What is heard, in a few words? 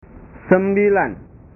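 "sembilan", Indonesian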